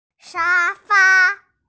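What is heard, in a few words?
"sha1 fa1", Mandarin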